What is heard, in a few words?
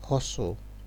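"hustle", Nigerian Pidgin, male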